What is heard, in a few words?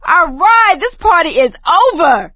hurrah, survivor voice; source engine; left 4 dead 2; producer